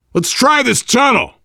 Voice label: world farmhouse, voice, biker; Left 4 Dead 2; survivor